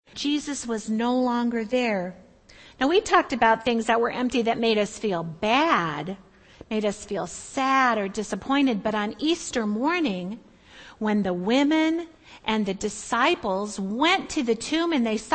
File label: kids message